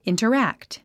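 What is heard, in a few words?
"interact", English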